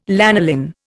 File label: "lanolin", Indian